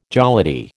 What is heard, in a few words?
"jollity", American